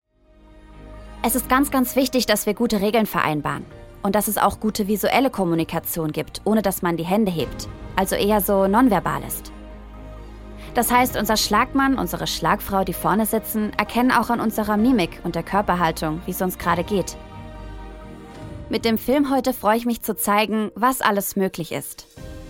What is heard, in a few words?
german documentaries